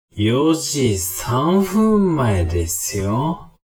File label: man